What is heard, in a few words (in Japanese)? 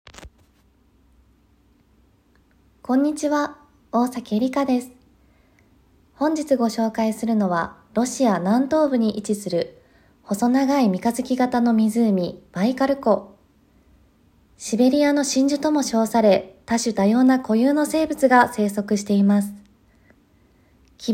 ナレーション